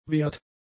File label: "wert", German; adjectives